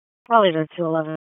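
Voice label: Police Northeast District Disp, radio; Raleigh; p25; wake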